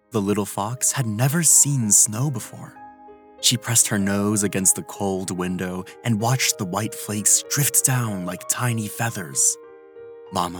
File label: Audiobook